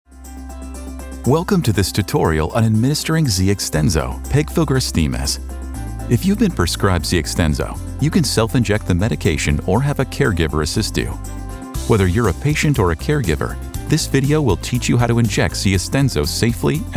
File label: medical explainer